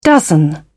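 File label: "dozen", British English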